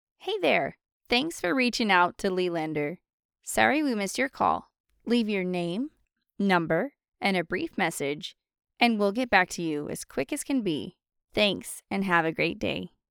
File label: Voicemail Recording